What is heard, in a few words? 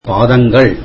tamil feet